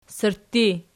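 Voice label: "sirtti" nominative, Archi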